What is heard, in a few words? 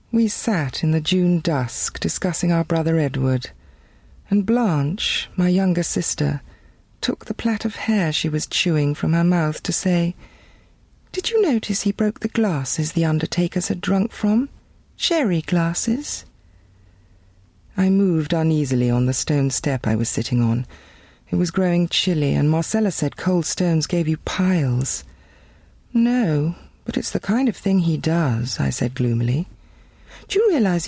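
drama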